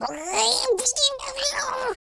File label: angry, American Wrestler